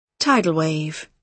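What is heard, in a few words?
"tidal wave", British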